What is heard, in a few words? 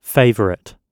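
"favourite" spoken, British English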